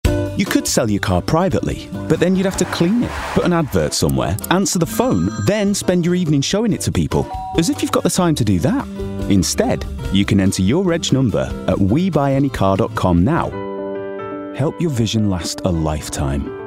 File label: COMMERCIAL MIX